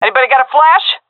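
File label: request flash, female; vo; Counter Strike Model Pack; CS:GO; seal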